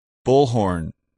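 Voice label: "bullhorn", English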